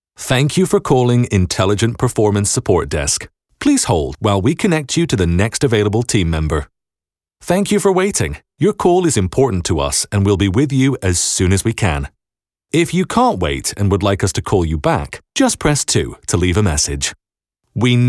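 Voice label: english international interactive voice response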